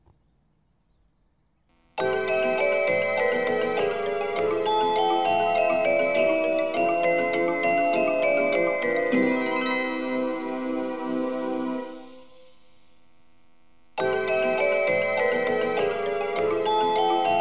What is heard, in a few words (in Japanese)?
中央本線 高尾・立川・新宿・東京方面 到着予告放送 接近放送 発車メロディー(スプリングボックス); しおつ; 朝ラッシュ時が狙い目ですが、 それでも途中切りが多いです。; 接近放送は「普通 高尾 行」です。; 2面３線の構造